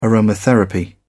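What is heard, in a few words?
"aromatherapy", British English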